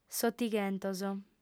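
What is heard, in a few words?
"s'otighentos", lingua sarda, female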